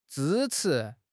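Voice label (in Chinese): "zhi1 chi2", Chinese; HSK; HSK4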